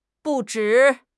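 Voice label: "不止", Mandarin Chinese; HSK; HSK6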